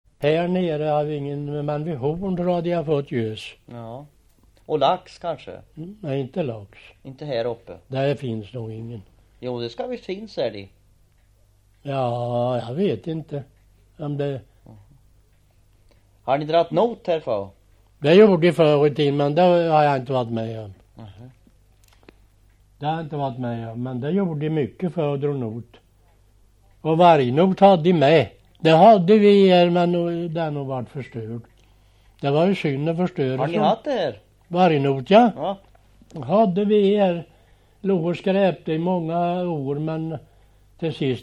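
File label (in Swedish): Oppeby Östergötland, dialekter; Folkminnen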